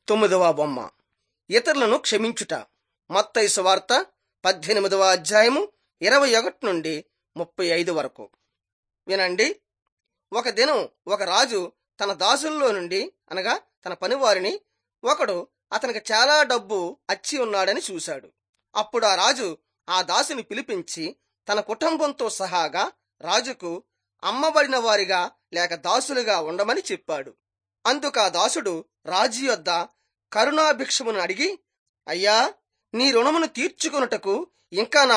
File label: telugu bangladesh; jesus teacher and healer